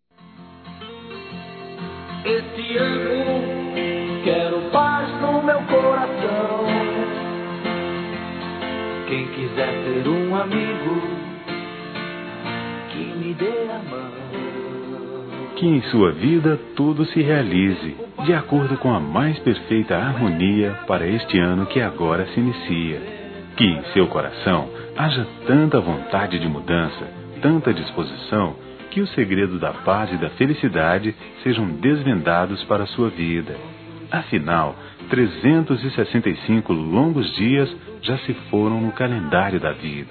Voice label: Feliz Ano Novo Masculino; Telemensagens; Natal e Ano novo

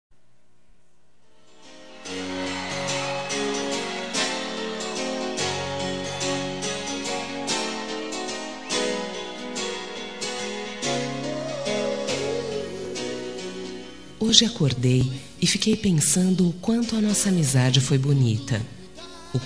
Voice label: ROM RECONCILIACAO FEM, Telemensagens

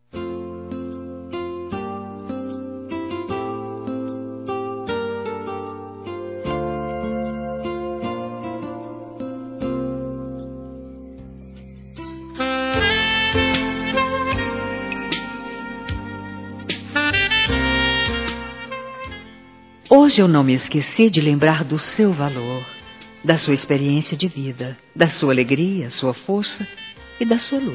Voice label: Para Sogro Feminino, Telemensagens, Dia dos Pais; Telemensagens 2017

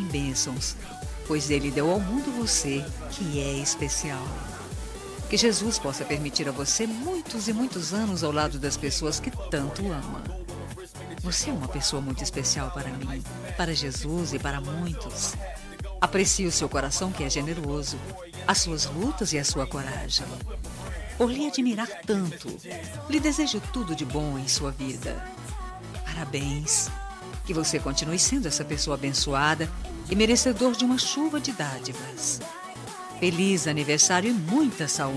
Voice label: IRMÃO F; Aniversários; Aniversários diversos; fem

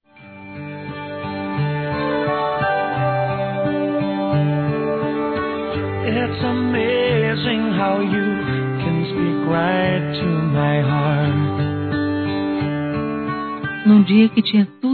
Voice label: Paquera Feminino; Telemensagens; Sensuais

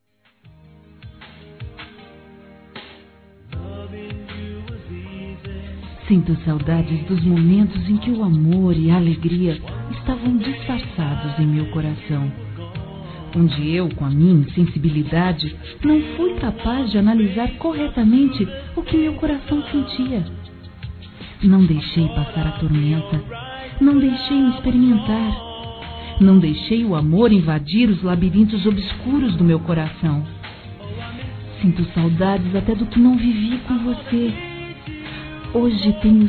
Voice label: Erro Término Namoro Feminino; Reconciliação

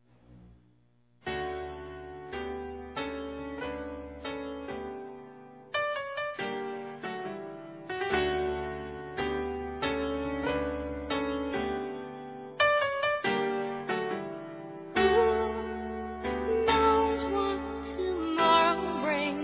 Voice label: Aniversário Neto Feminino, Telemensagens; Aniversários; Aniv Familiar

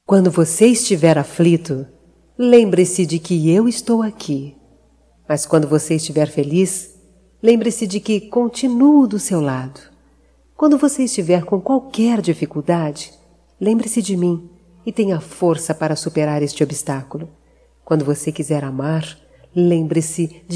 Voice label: Romântica Feminino

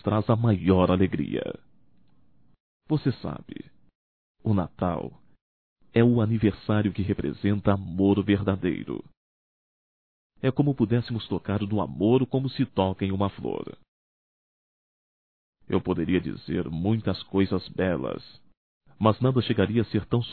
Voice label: Irmã Masculino; Telemensagens 2017; Natal & Ano Novo

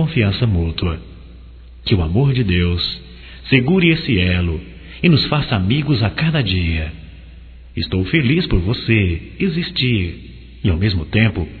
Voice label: Amizade Geral Saudade Masculino

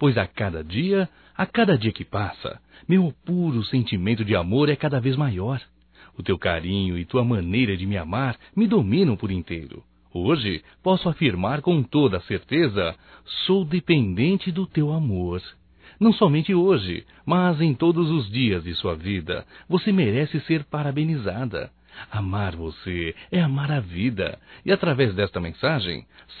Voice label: ANIV Romântico (M); Aniversarios; Telemensagens 2017

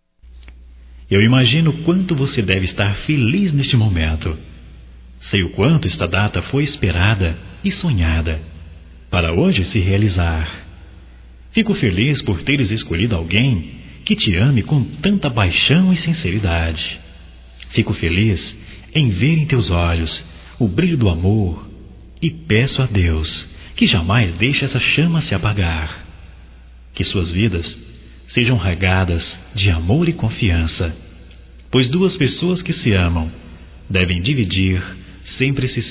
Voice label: Cumprimento Pelo Casamento Masculino; Casamentos; fem; Telemensagens 2017

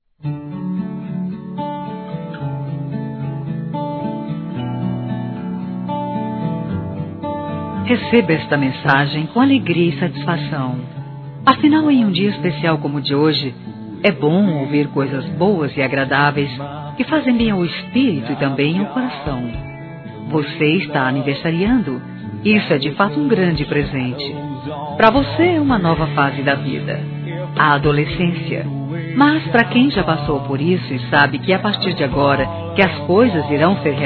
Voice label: ADOLESCENTE F, Telemensagens Aniversários; Aniversários diversos; fem

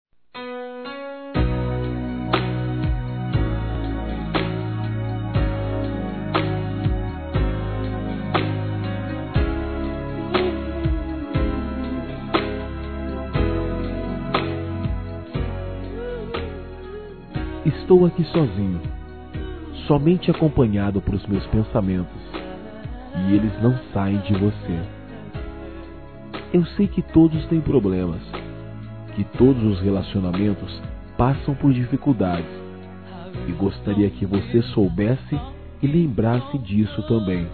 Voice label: PEDINDO P CONTINUAR M, Broncas, masc

